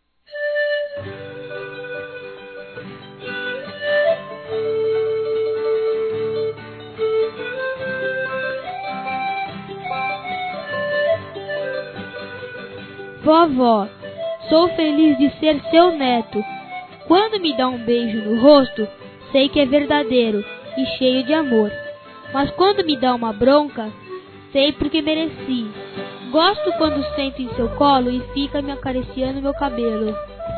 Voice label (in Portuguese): Aniversário Avó Infantil Masculino; Telemensagens 2017; Aniversários